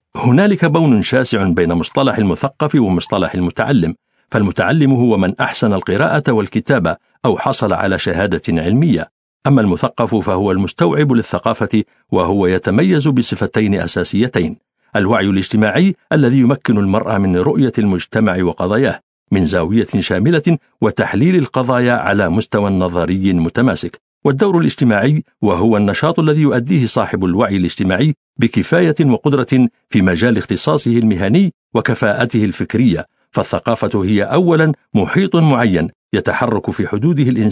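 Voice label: Arabic, male, corporate; voice over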